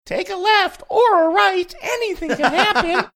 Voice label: saying take a left or right anything can happen funny